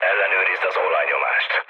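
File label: Hungarian damaged oil mood low, speech; War Thunder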